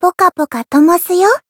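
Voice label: Voice heal, Japanese; yukino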